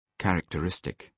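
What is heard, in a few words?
"characteristic", British English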